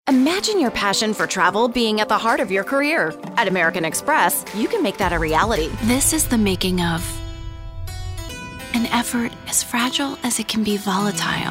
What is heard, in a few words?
Down n Dirty Corporate Narration